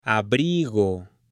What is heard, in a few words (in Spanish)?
"Abrigo", H; ROPA; AppLenguaje